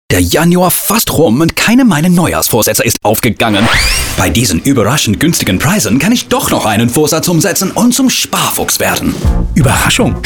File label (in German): Funkspot mit englischem Akzent; Edeka